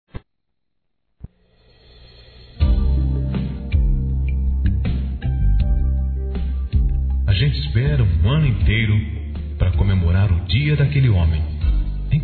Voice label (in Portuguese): DIA DOS PAIS, Telemensagens; Telemensagens 2017